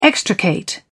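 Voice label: "extricate", British English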